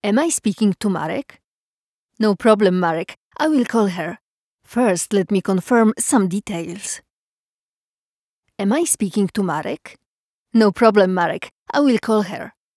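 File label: Polish, female, english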